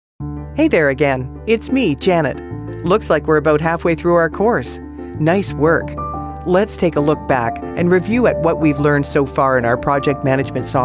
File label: eLearning Narration